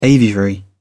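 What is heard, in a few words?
"aviary", British English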